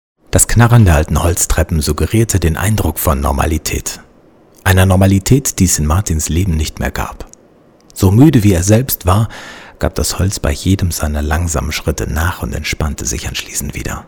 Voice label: Schauspiel Variabel

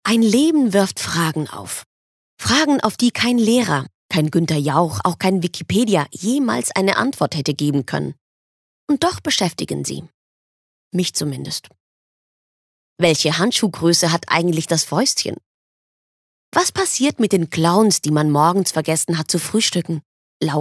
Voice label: Schauspiel

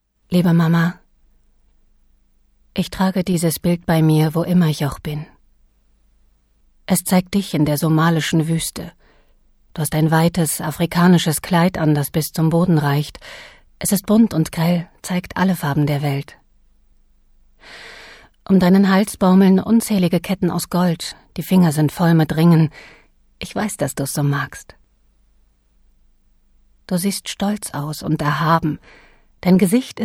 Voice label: Sanft

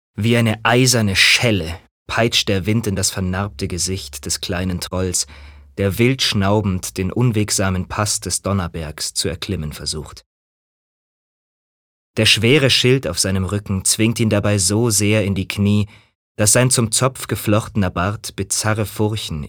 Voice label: Zynisch; Helden

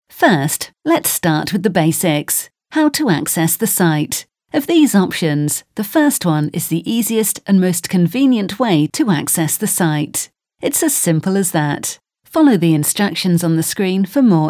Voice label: Eng UK Dynamisch

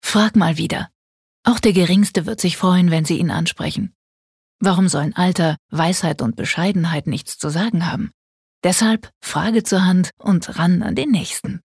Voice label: Werbung Freundlich

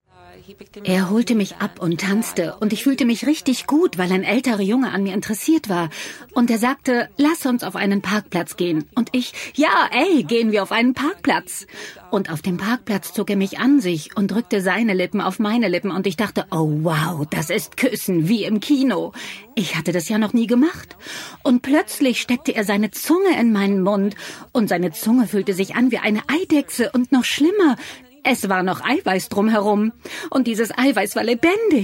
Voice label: Freundlich

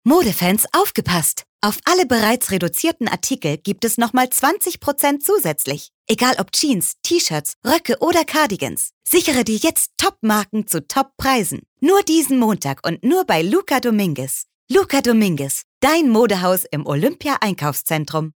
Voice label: Freundlich

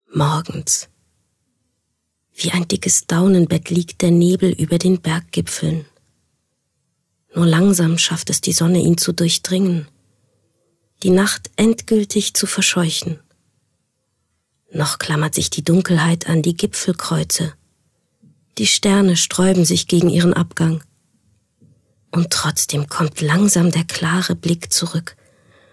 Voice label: Schauspiel Sanft

